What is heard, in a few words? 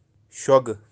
"shogu" parakeet, Birds of kashmir